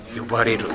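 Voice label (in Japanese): "yobareru", 南山形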